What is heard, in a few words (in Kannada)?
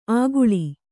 "ಆಗುಳಿ", Kannada